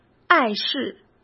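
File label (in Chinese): "碍事", Mandarin; chinese-flashcards; hsk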